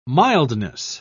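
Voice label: "mildness", British English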